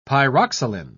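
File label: "pyroxylin", British English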